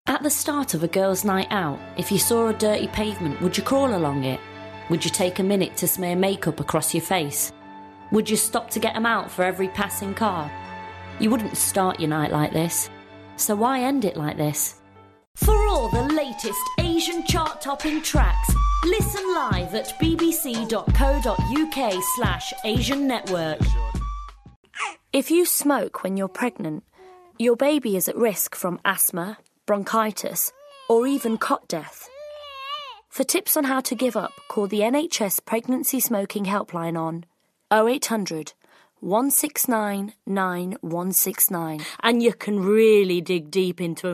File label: Commercials